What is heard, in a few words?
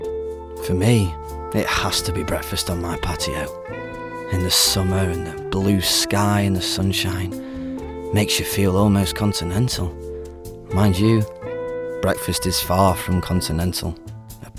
Commercials